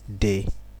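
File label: "dey", Nigerian Pidgin, male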